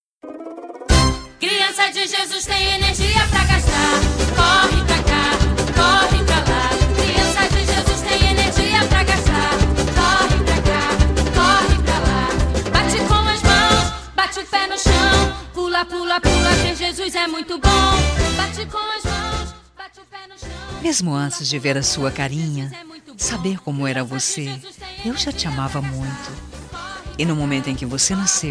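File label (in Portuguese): birthday FILHO female, Infantil, telemensagem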